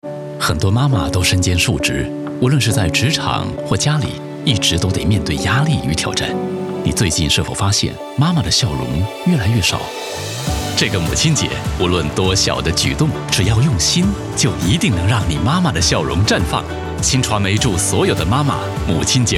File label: Mandarin